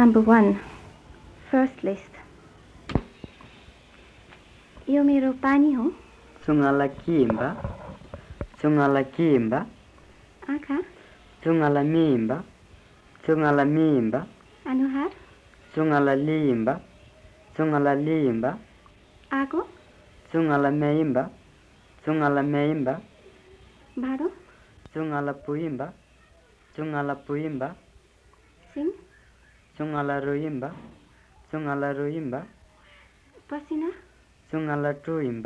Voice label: Western Tamang